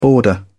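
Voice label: "border", British English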